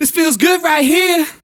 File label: Vocals